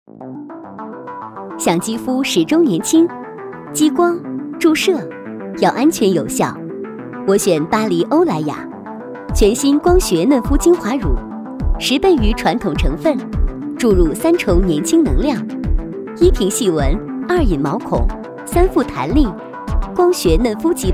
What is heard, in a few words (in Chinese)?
化妆品广告时尚